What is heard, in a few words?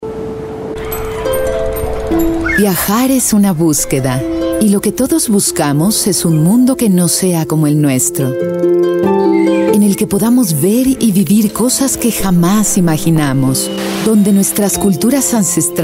spanish voice over female documentary